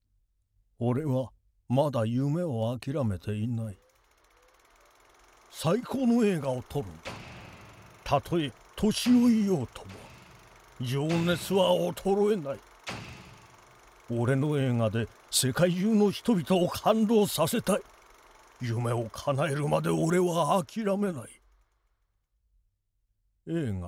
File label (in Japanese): 老人